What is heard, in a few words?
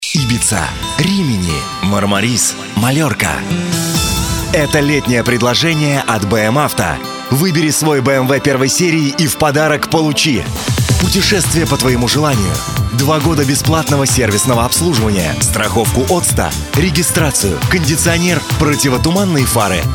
russian male voiceovers locutor ruso